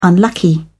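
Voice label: "unlucky", English, British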